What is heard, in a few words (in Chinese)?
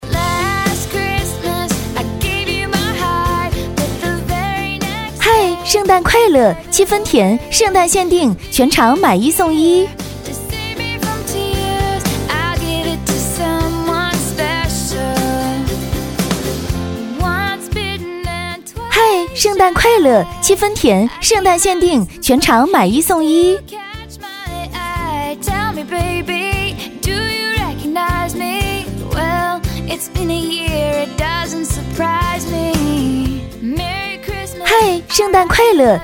女 甜美促销; 7分甜